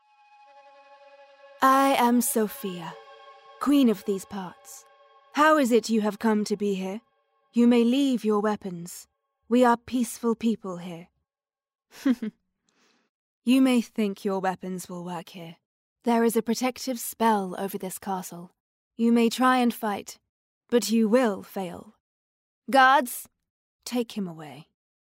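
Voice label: Queen character video game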